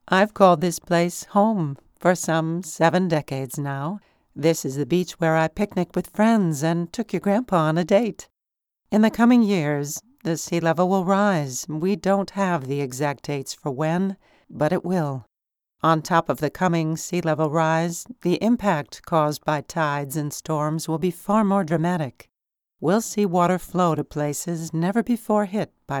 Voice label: documentary

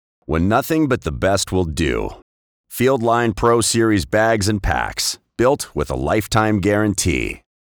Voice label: authoritative male voice over; bags and packs; field line

